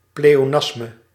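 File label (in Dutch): "pleonasme", Dutch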